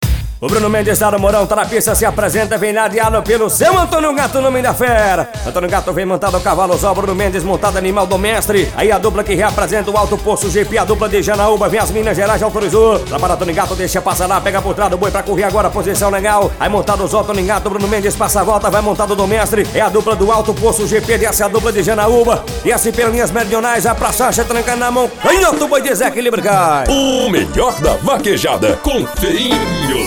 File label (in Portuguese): locutor